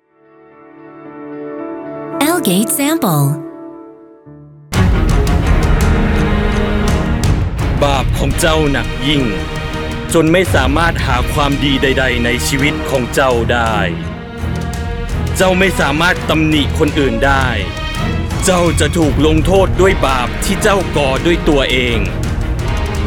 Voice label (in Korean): 태국 남 강한 톤